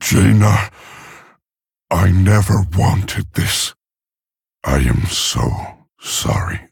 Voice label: Male Orc, Loss